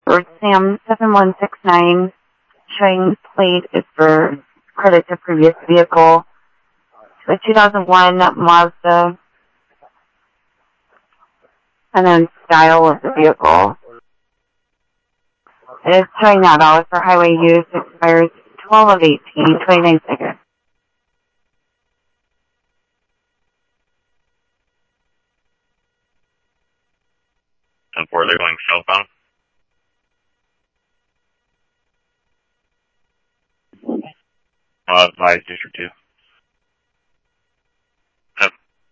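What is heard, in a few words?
Sheriff East County, scanner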